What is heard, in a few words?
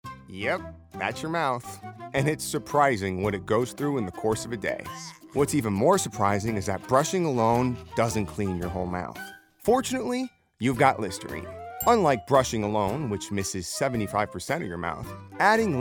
Commercial EN; Full demo